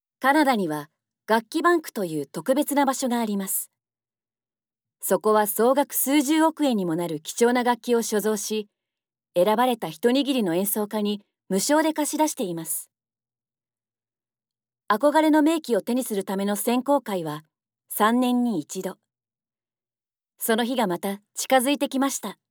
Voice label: japanese narration

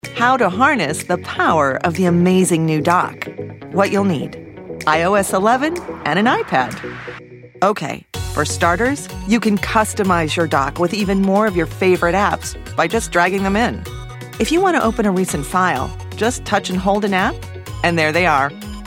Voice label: english american corporate videos

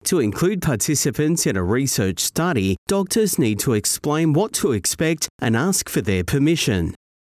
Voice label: english australian e-learning

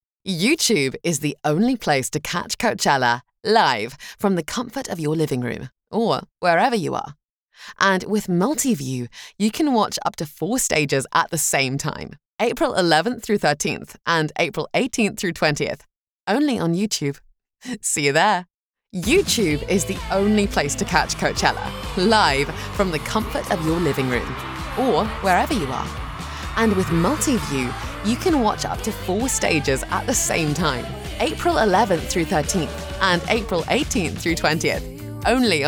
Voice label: english british tv shows

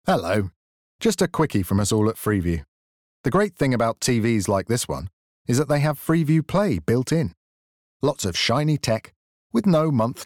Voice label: english british

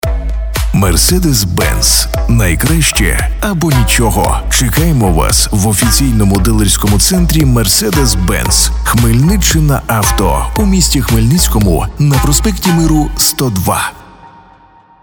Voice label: ukrainian automotive

